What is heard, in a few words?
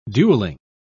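"duelling", British English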